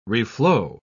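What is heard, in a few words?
"reflow", English, uk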